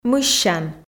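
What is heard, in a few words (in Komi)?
"мыссян", Komi, female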